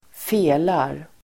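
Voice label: "felar", Swedish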